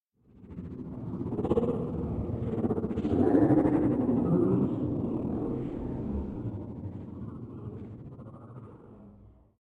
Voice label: Alien Talking - Big Reverb - Short; Hollywood Edge; sound designer's toolkit v2

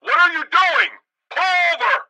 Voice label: police megaphone; Blind Drive

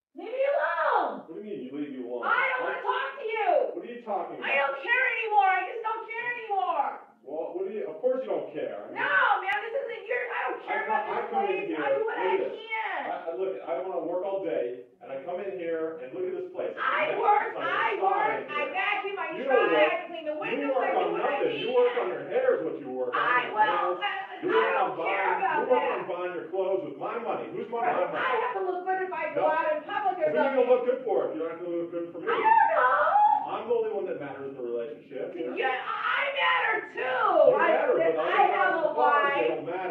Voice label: Couple argue unfiltered; Pitch Black - A Detective's Demise

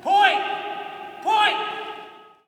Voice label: Hockey Male Point; Hockey Vocal Sounds

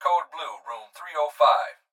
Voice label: Hospital Pa Voice; Blastwave

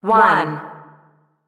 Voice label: Announcer; Pitch Black Audio Pong

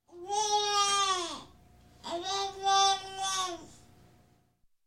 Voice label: Baby Talking, Human; Sound Ideas 2000 Series

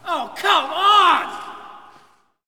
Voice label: Hockey Male "Come On"; SFX Kit; Hockey Vocal Sounds

